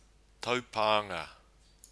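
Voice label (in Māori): "taupanga", Māori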